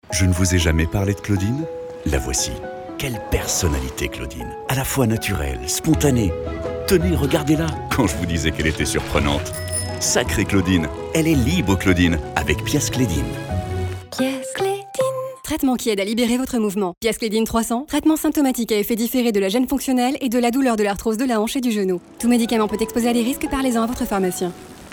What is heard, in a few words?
spot TV; Piascledine